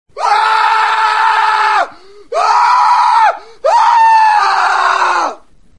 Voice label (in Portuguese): Homem Assustado